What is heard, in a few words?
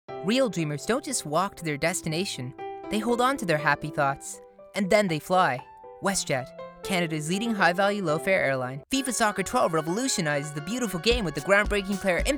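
commercial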